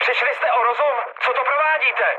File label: player killed ally, speech cze, mood med